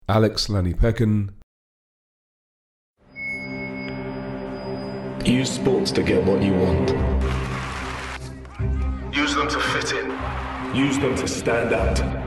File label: commercial; Innovative LA